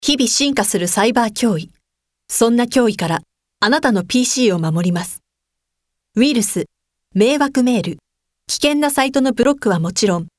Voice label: narration